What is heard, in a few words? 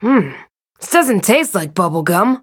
level up, en; Cookie Run